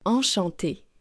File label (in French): "Enchante", French